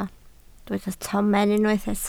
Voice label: "tomen", Welsh